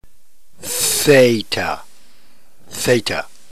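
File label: "theta", New Testament Greek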